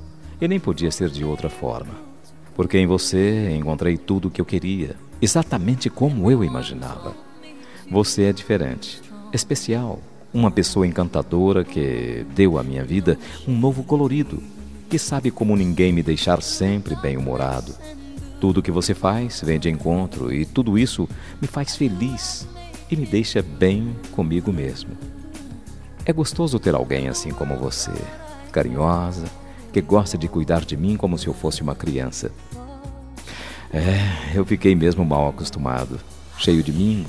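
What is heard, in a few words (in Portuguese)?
ROMANTICA PARA ESPOSA MASC, telemensagem romantica para esposa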